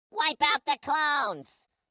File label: charge droid, Voices Emotes